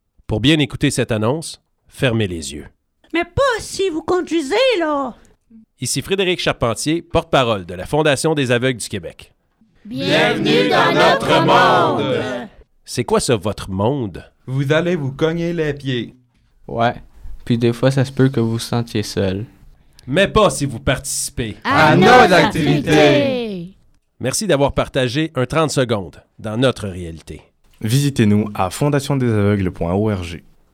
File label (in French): Publicite